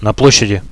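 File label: on the square, radio, Russian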